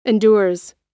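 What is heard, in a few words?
"endures", American English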